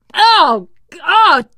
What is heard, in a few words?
boomer reaction, teengirl; survivor voice